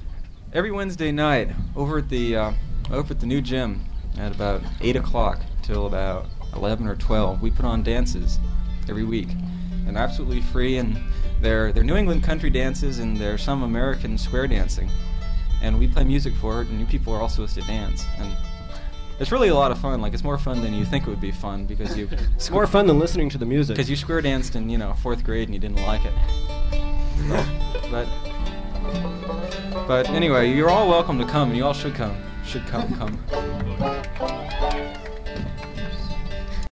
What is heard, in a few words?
Announcement; 3rd Annual UCSD Mini Folk Festival; La Jolla Civic Orchestra